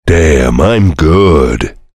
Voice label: soldier cheers

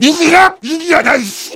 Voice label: heavy good job

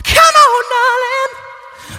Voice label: spy taunts, voice packs; spy jackson

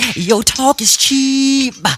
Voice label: spy jeers; spy jackson

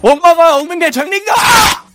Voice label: scout jeers

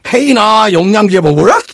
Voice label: jeers; voice packs

